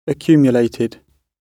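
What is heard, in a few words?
"accumulated", British English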